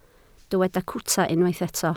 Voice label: "cwta", Welsh